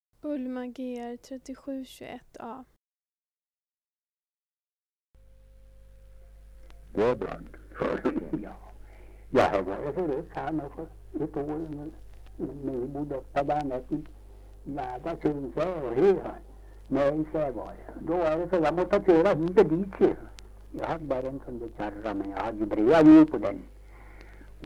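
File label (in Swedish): Österfärnebo Gästrikland, dialekter; Svenska sagor; Folkminnen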